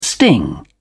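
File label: "sting", British English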